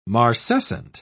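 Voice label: "marcescent", English, British English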